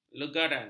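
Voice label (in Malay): "legaran", Malay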